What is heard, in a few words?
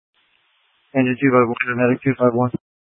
Fire Departments, scanner